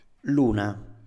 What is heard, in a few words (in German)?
"luna", Italienisch; Mond; Standard-Italienisch